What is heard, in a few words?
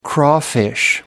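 "crawfish", English, British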